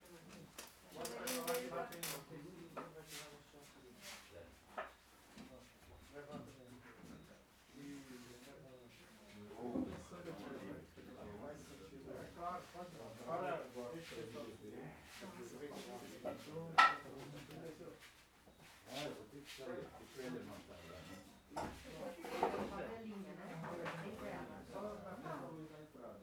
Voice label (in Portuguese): Pessoas conversando dentro de casa e mexendo em utensilios; multidao